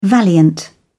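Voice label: "valiant", British English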